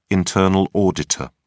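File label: "internal auditor", British English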